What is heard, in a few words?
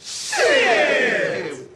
shit crowd; Voice; expletives